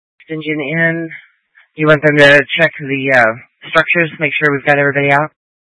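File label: Incident Tactical, scanner audio recording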